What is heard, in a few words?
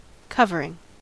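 "covering", American English; pronunciations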